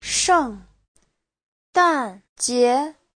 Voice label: "sheng4dan4jie2", Chinese; 圣诞节 : Giáng sinh, Noel; Giáo trình Hán ngữ